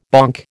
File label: "bonk", American